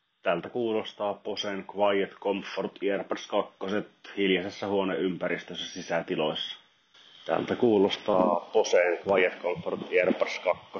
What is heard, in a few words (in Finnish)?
QuietComfort Earbuds II mikkiesimerkit; Boset